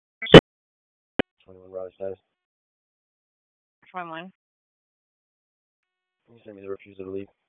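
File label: Police Downtown District Dispatch, p25; Raleigh Police Downtown District Disp; wake p25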